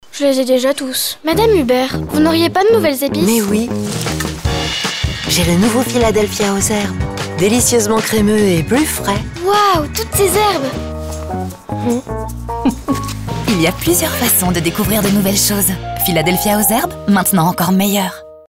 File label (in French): voix off enfant; philadelphia